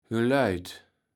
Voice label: "geleujt", Kaevels Platt

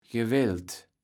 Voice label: "gewellt", Kaevels Platt

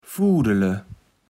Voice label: "fudele", Kaevels Platt

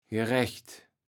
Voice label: "gerecht", Kaevels Platt

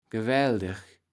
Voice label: "gewäldig", Kaevels Platt

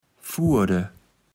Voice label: "furde", Kaevels Platt

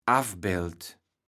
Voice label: "Affbeld", platt

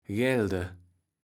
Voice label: "gelde", Kaevels Platt

